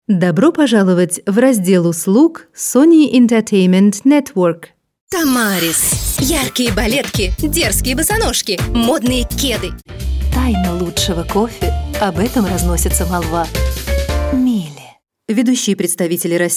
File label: narration